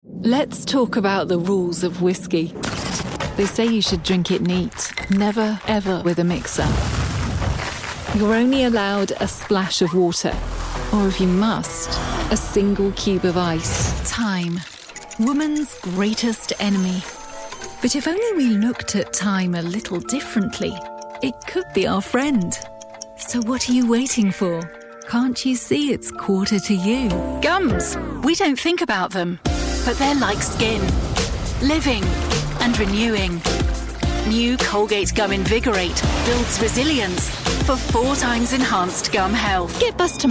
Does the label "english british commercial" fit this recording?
Yes